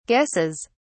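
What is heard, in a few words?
"guesses", British English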